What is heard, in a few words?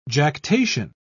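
"jactation", British English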